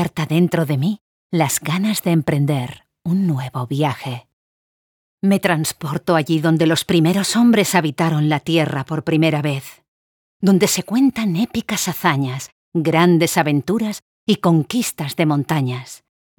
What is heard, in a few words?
spanish real estate